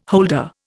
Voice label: "holder", Indian

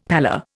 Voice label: "pallor", Indian; Dictionary

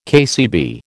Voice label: "KCB", American

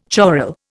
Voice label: "choral", Indian

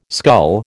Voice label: "scull", American

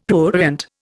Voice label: "prurient", Indian; Dictionary

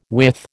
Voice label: "width", American

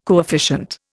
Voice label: "coefficient", Indian

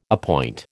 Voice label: "appoint", American

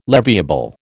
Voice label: "leviable", American

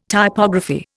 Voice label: "Typography", Indian

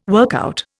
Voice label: "workout", Indian

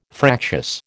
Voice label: "fractious", American

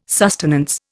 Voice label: "sustenance", Indian

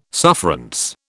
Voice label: "sufferance", British

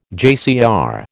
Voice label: "JCR", American

